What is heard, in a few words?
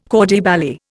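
"corps de ballet", Indian English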